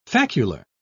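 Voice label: "facular", British English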